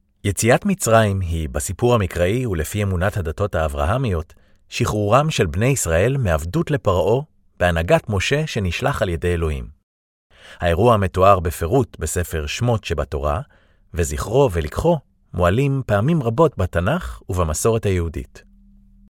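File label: Hebrew, Narration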